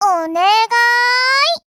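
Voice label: Voice, Japanese; Sharkry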